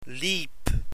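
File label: "liep", Dutch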